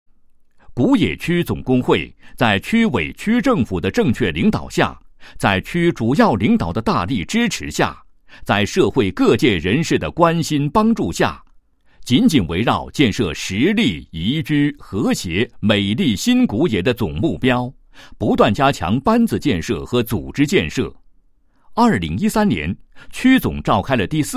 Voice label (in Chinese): 男 工作汇报; 纪录片介绍内容